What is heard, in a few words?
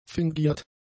"fingiert", German; adjectives